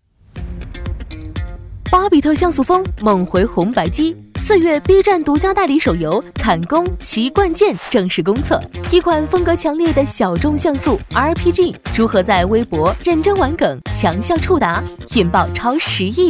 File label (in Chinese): 女-娱乐快报; 坎公骑冠剑